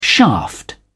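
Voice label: "shaft", British English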